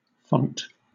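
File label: "font" British English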